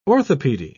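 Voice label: "orthopedy", British English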